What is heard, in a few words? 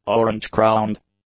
"Orange-crowned", English; nouns